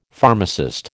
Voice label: "pharmacist", American

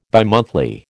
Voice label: "bimonthly", American

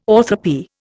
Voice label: "orthoepy", Indian

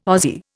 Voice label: "Ozzie", Indian

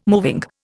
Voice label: "moving", Indian

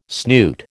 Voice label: "snoot", American

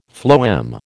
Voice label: "phloem", American

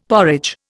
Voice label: "porridge", Indian; Dictionary

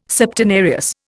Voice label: "Septenarius", Indian

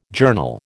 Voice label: "journal", American

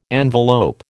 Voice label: "envelope", American

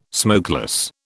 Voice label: "smokeless", British

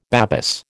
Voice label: "Babis", American

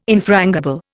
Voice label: "infrangible", Indian

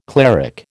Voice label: "cleric", American

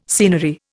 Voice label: "scenery", Indian

